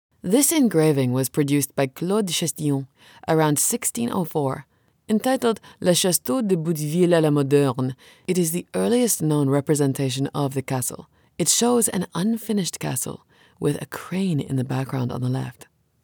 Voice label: english international documentaries

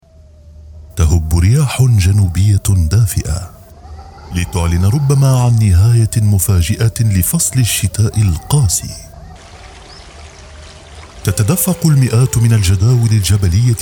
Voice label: arabic documentaries

